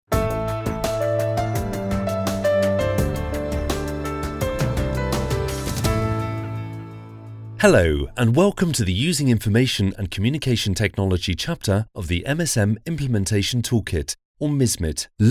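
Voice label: eLearning voice over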